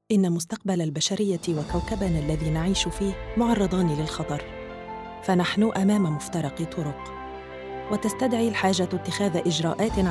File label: arabic narration